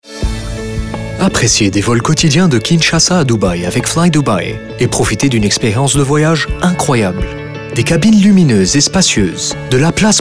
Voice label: french commercial